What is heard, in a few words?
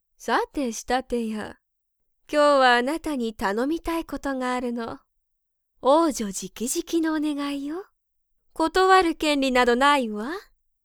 sample woman